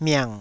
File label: "miang3", Taiwanese syllable